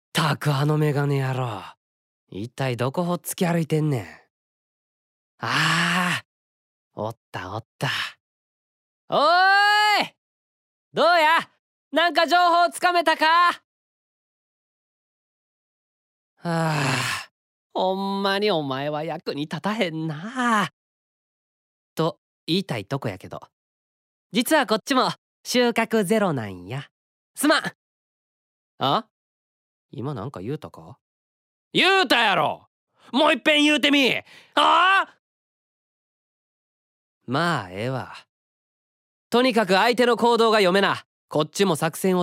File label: male